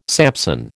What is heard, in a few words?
"Samson", American